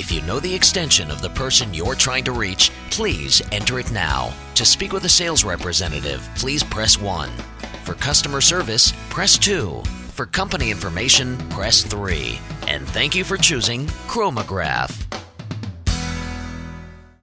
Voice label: ivr printing; onhold message; kromogaph